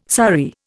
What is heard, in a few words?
"sari", Indian